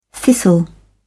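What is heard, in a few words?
"thistle", British English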